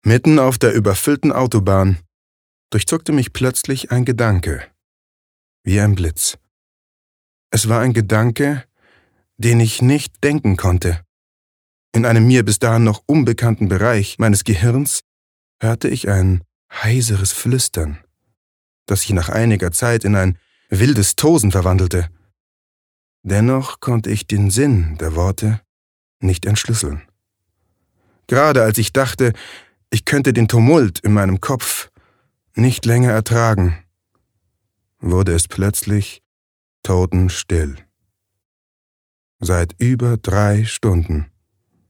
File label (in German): Geheimnisvoll; Nachricht